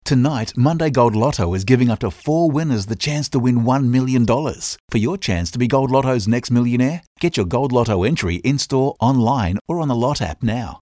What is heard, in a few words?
locutor australiano australian voiceover